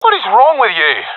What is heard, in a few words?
sees friend killed, professional epic; vo; Counter Strike Model Pack; CS:GO